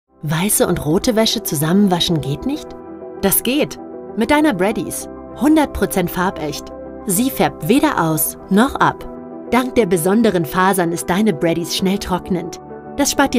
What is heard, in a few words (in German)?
Social Media Werbung; Breddys